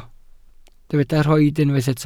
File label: "rhoid", welsh